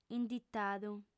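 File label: "inditadu", female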